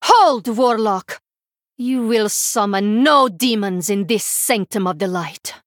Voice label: Female Draenei

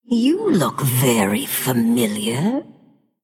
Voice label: Female Blood Elf

